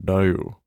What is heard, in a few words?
Bufo voice